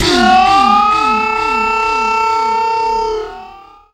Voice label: My Sound Stretch Vox, Jungle

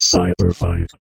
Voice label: Cyber Funk, Robotic Vox; Zero-G Club Techno

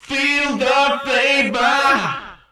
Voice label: Male FX Vocals; Houseworx

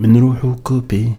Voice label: POET, POET VOICE, Arabian Traditions

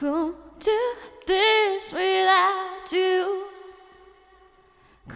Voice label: Mixed Berry Jam Voice, 70s Analog Funk

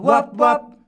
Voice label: WAB-WAB, MALES; Best Service; Hyper Dance

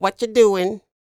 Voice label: DIALOG, R&B Dance, FEM. SPOKEN

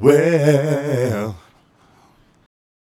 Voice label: Male Vocal; Mac Money

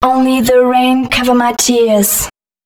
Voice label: female, spoken hooks

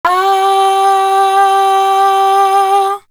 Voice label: Female, Voice; AMG - Coldcut's Kleptomania VOL-1

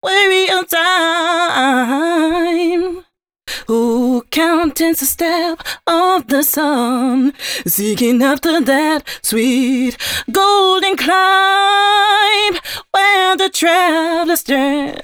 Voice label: female c# 140 bpm, hooklines, Dance Ultra Voice; Mutekki Media; Dance Ultra Voice VOL-1

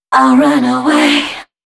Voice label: female 130, Dance Ultra Voice, short hooks

